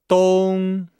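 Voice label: "dong", Chinese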